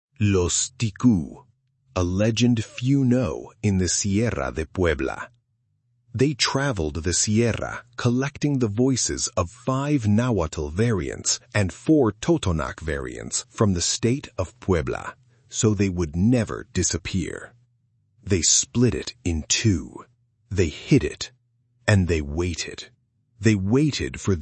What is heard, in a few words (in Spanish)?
narracion trailer ingles; Codigo Ancestral